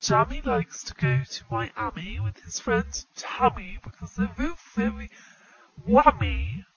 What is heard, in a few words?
likes to go to miami robot